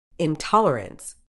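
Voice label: "intolerance", British English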